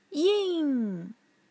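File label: "ying", Mandarin Chinese, tone 4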